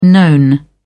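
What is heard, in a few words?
"known", English, British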